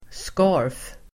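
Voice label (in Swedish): "skarf", Swedish; Uttal: [ska:f]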